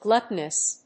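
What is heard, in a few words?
"gluttonous", English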